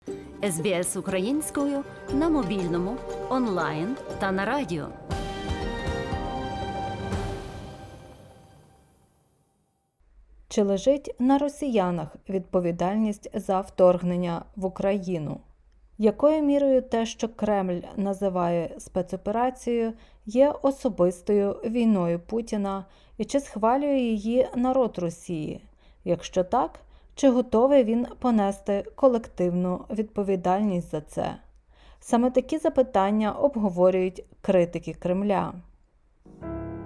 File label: ukrainian; Чи лежить на народі Росії відповідальність за вторгнення в Україну?; SBS Ukrainian